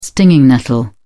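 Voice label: "stinging nettle", English, British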